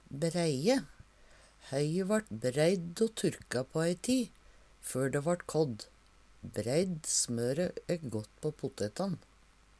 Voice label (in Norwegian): breie, Numedalsmål